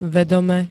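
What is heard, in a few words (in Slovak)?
"vedome", Slovak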